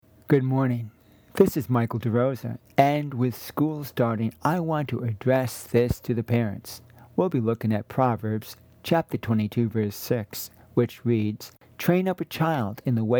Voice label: Radio Sermonette; Proverbs 22 6; Children and School